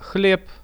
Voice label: hleb, Russian; products